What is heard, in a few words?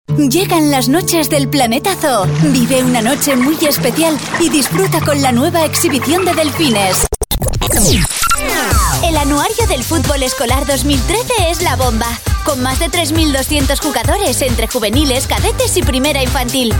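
female Castilian Spanish voice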